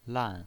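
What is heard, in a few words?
"lan", pinyin, Mandarin Chinese, fourth tone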